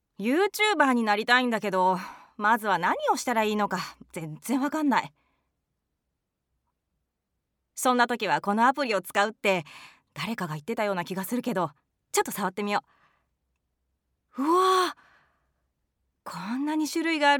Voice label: Voiceover